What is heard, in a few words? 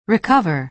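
"recover", American English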